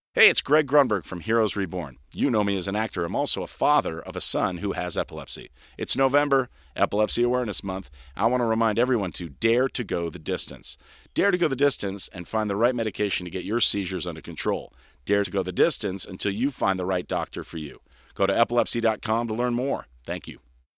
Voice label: spoken word mtp840; lewitt